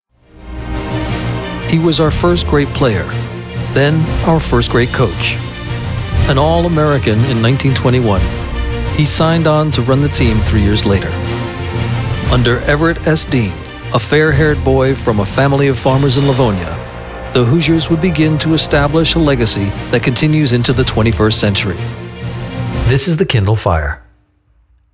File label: VO Demo Annc VO demo